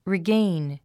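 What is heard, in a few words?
"regain", English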